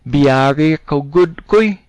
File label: leave me alone, cebuano